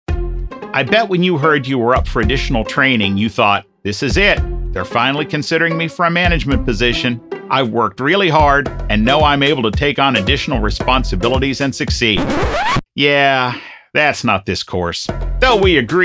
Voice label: E-Learning Mix